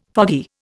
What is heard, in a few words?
"foggy", Indian